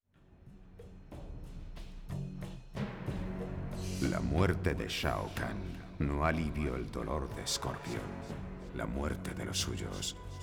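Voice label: music voice-over endings Spanish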